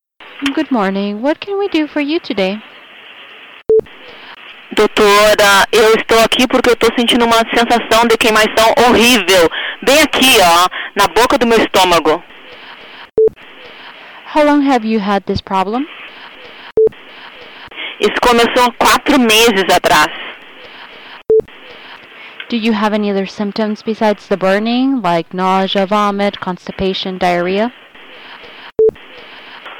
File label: Medical Colonoscopy Procedure Brazilian Portuguese without interpretation